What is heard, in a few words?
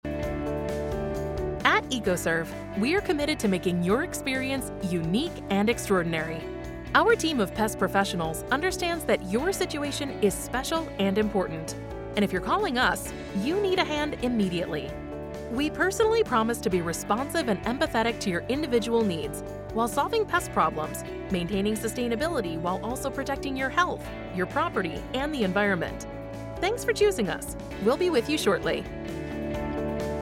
Message On Hold